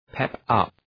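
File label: "pep up", English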